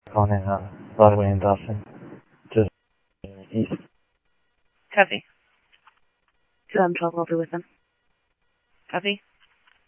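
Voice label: police radio scanner traffic, Mesa Simulcast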